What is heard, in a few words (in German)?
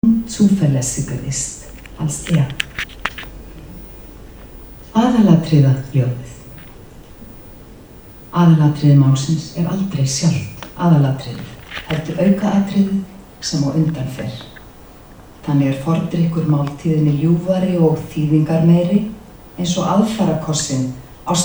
Live Gedicht; Steinunn Sigurdardóttir